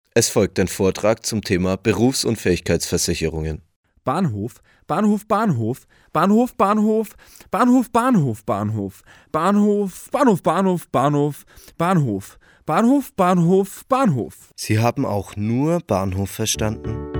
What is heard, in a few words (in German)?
Werbung